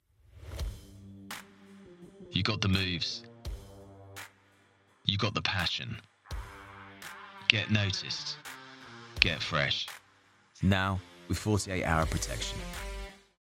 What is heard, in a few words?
Commercial Deodorant; voice-over